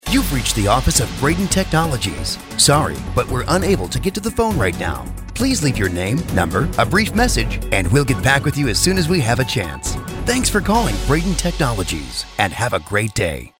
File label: Phone Message; Braden Technologies